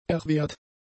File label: "erwehrt", German; adjectives